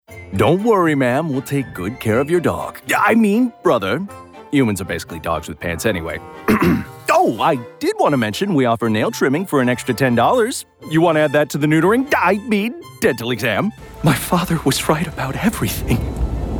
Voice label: Animation